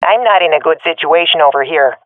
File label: scared, swat fem; Counter Strike Model Pack; CS:GO